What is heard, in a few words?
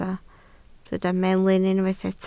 "melyn", Welsh